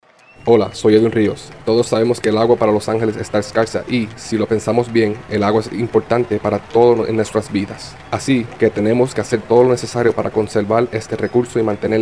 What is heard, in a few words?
SPANISH